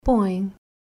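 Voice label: "Poe", Portuguese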